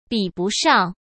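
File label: "比不上", Mandarin Chinese; hsk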